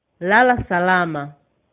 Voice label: good night swahili